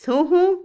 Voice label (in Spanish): nombre, Otomí, mujer; Habla; INALI